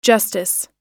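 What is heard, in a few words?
"justice", English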